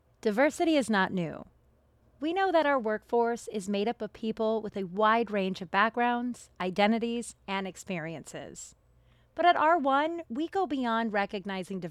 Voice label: E Learing

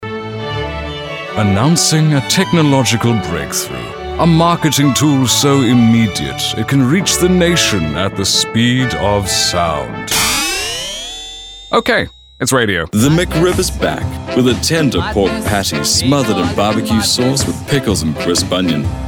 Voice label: commercial

